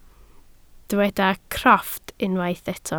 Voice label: "crofft", Welsh